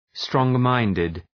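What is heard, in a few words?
"strong-minded", English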